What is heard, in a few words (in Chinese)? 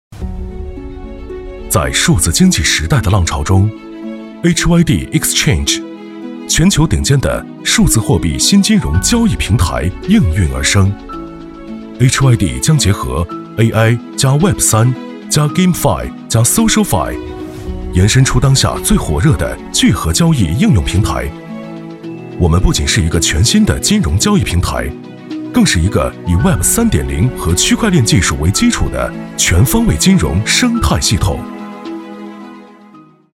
科技感